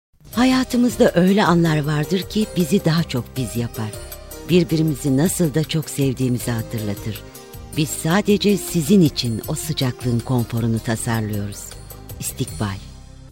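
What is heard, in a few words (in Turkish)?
turkish female narration; voice demos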